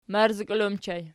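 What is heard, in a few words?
"marzikol" ergative plural, Archi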